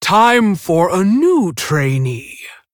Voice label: Female Tauren Hero Power; Helka; Story Valeera Mission2